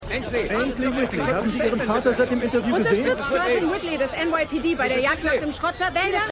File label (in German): Reporterin; Prodigal Son